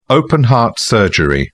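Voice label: "open-heart surgery", British English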